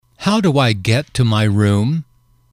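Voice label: "how do I get to my room", English, male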